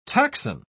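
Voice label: "taxon", British English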